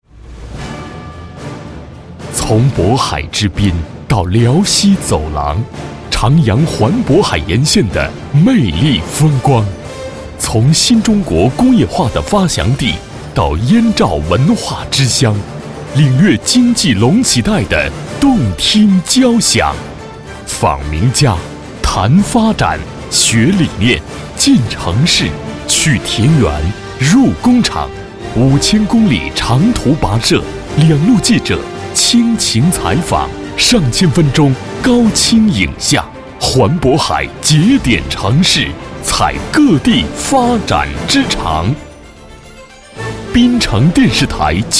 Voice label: 男 电视片头, 国语男声配音

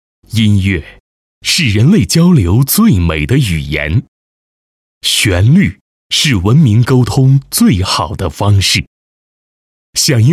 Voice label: 男, 宣传片 国际音乐季; 深圳 一带一路

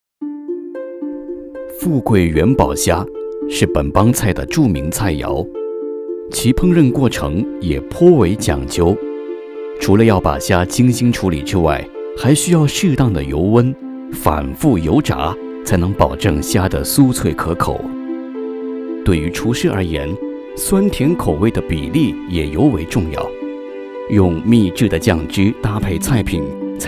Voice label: 舌尖风格专题配音

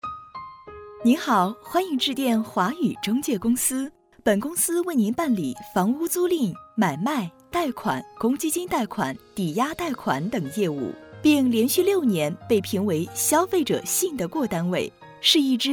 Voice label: 彩铃 样音

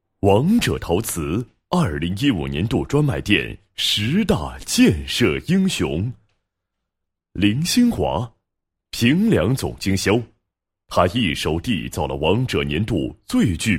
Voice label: 男-颁奖-2015年度营销英雄, 配音案例; 王者陶瓷

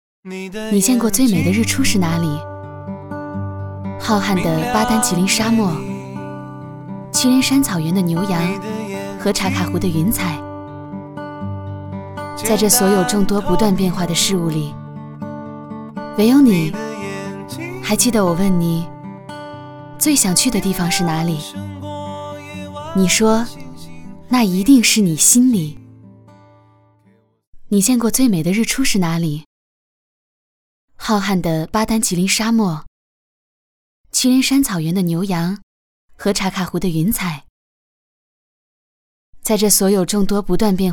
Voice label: 女, 微电影旁白; 猫的树

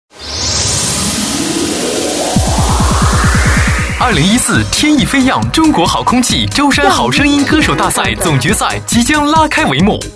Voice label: 男 广告 青春活力, 国语男声配音; 天翼

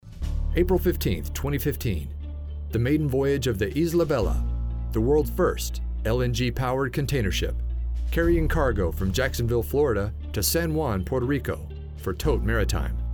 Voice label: 外籍英男 新闻播报

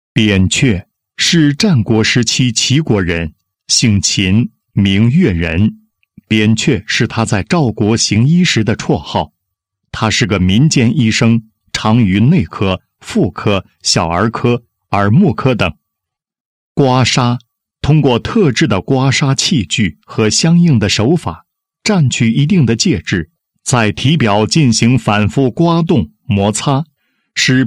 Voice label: 男 医疗专题; 非药物养生之刮痧

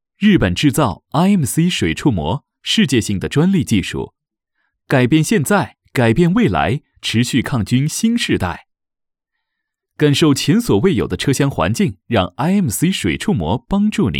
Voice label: 年轻品质广告, 科技类, 清新自然; IMC-CAR

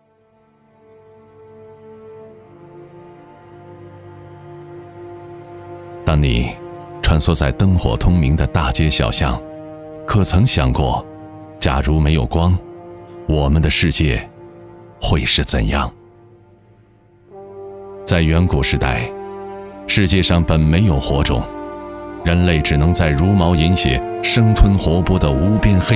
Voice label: 男 纪录片 沉稳磁性; 人类万物之灵

